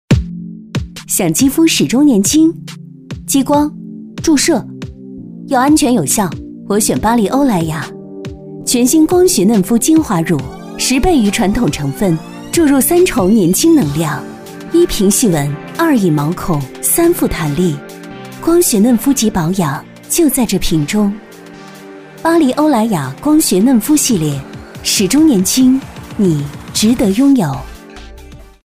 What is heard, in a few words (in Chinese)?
广告 高端质感 化妆品